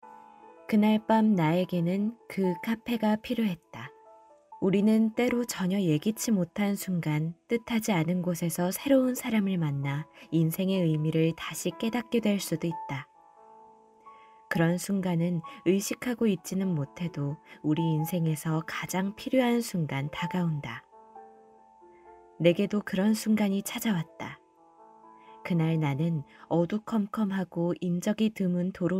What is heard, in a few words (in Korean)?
소설 차분한; 세상끝의카페